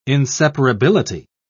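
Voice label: "inseparability", British English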